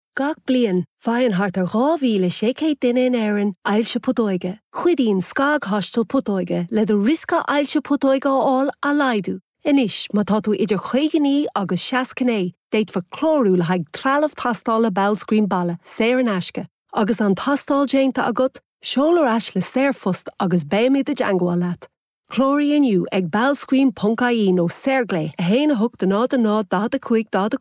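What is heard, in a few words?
Irish demo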